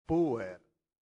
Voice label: "puer", Latin; santillana